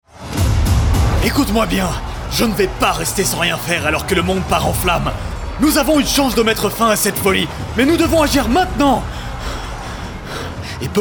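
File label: Character Natural (French)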